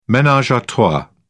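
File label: "menage a trois", British English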